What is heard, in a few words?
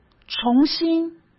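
"重新", Mandarin Chinese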